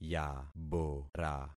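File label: "yabora", concatenated syllables; Affixing experiment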